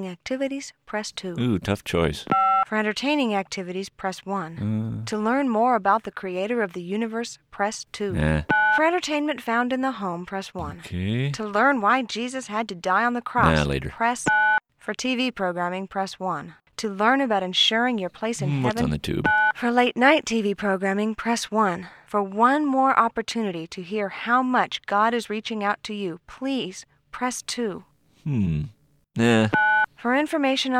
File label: Voicemail Choices